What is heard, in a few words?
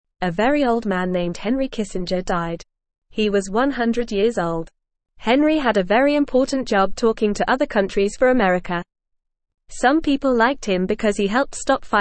English Beginner FAST Reading; Henry Kissinger A Very Old Man with a Big Job; English Newsroom